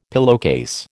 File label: "pillowcase", American